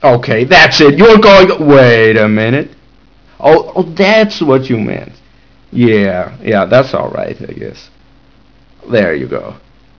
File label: word machine speech